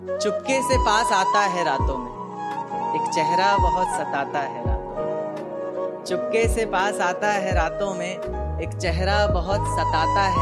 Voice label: Sad Shayari Ringtone

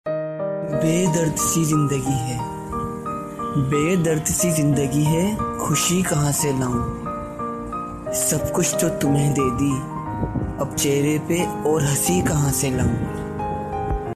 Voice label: Sad Shayari Ringtone

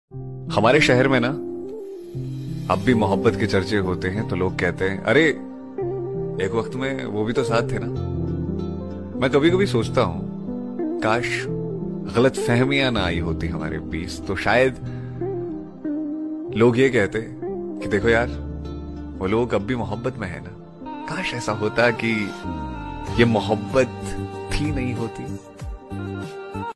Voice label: Shayari Ringtone

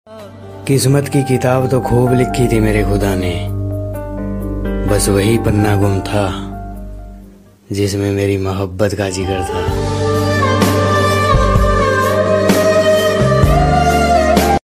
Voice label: Sad Shayari Ringtone

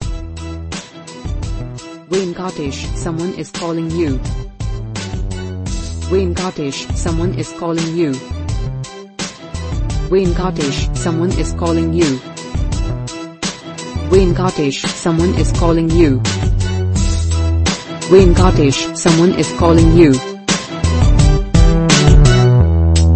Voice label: Name Ringtone With Music

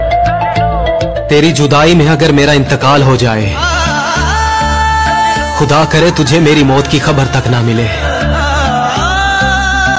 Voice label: Heart Touching Shayari Ringtone

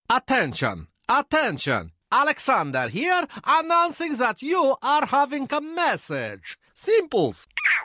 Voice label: Atention Funny Ringtone

